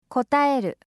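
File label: "kotaeru", Japanese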